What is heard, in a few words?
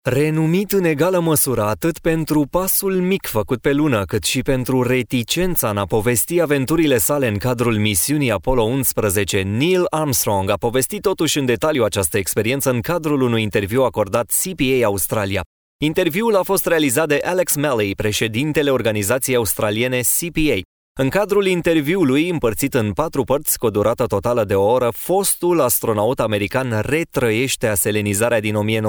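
Romanian male Narration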